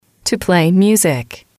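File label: "to play music", English, female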